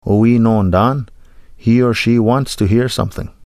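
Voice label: "Owii-noondaan", Ojibwe; He or she wants to hear something; Nanabush Looses The Meat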